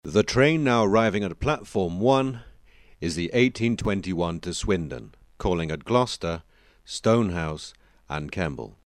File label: UK Train Announcer DRY; RaySpace